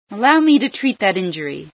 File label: heal, female